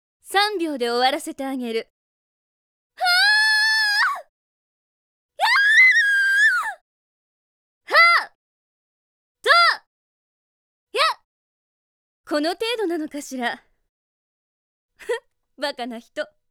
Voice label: character voice; D-XHIRD; SABRINA

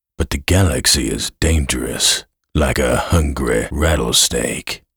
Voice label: Intro Narrate; Rogue Continuum

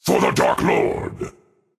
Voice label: Dark Army Barrack Taunt

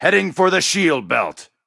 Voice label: Voices Character Male; unreal development kit

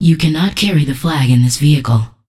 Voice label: Voices Announcer Status

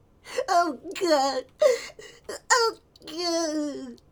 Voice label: Male Kid Beggings

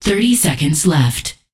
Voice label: Voices Announcer Status

